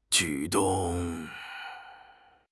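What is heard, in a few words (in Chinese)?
"举动", Mandarin Chinese; HSK6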